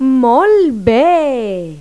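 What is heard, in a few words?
"muy bien", Spanish; Pipo-Aprende musica con Pipo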